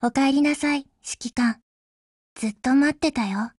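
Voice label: HELLO Japanese, Voice; GF Alarm; GepardM1Mod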